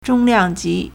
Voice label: "zhong1 liang4 ji2", Chinese